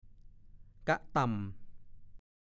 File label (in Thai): "ก๊ะต่ำ", ภาษาโคราช